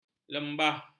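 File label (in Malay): "lembah", Malay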